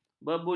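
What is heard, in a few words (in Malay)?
"bebuli", Malay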